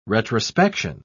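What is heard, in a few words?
"retrospection", British English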